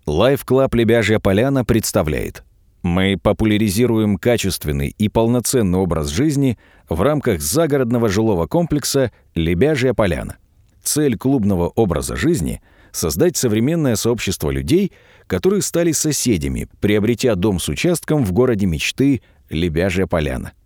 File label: sredniy rus